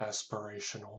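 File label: "aspirational" English, US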